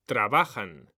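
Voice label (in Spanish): "trabajan", Spanish, male; mekanta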